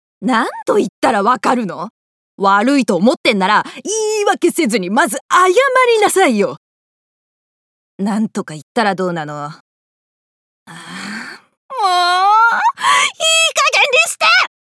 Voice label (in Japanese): 怒り30代